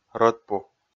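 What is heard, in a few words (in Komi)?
"рӧдпу", Komi, male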